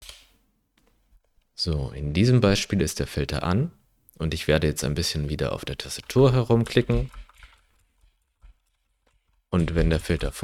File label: test filtered with noise; rnnoise